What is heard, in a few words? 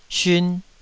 "siun2", Taiwanese